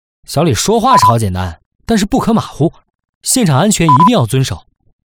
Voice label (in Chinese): 湖北 角色配音试音